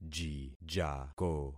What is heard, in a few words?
"jijako", concat syllables; Affixing experiment